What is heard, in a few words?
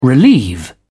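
"relieve", British English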